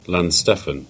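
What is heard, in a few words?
"Launceston" Cornish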